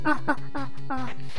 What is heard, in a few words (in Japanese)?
ダメージボイス; 悪魔城ドラキュラ(FC版)